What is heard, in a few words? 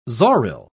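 "zoril", British English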